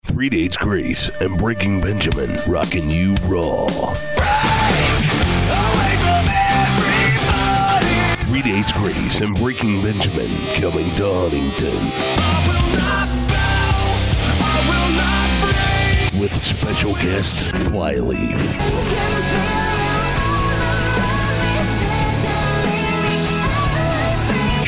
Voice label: concert spot